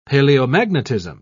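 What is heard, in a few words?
"paleomagnetism", British English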